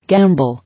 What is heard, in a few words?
"Gamble", English